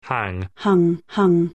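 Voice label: "hang", English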